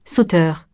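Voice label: "sauteur", French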